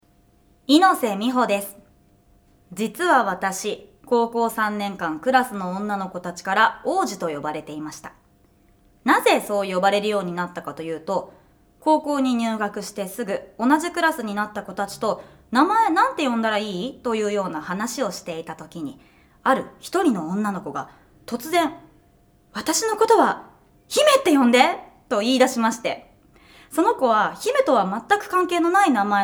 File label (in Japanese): フリートーク